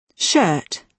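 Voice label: "shirt", British English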